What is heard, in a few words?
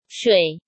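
"Shuǐ", Chinese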